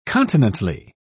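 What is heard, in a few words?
"continently", British English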